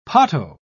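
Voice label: "potto", British English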